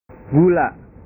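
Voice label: "gula", Indonesian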